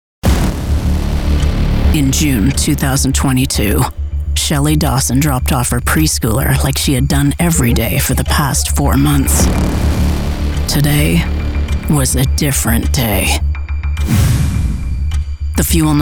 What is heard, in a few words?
Network English